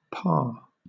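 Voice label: "parr", British English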